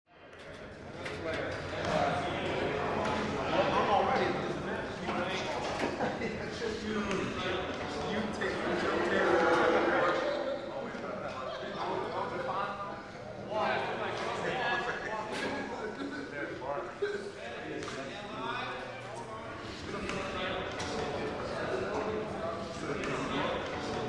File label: Mens Locker Room Wal, men walla